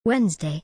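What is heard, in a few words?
"Wednesday", British English; days-of-the-week-in-english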